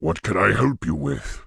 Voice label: Orc Male Guard Vendor